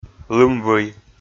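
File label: "лымвый", Komi, male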